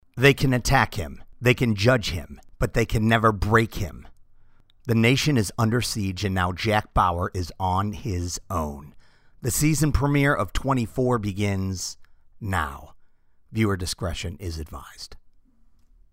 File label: TV Show Lead In